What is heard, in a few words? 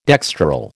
"dextral", American